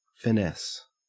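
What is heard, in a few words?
"finesse", Australian English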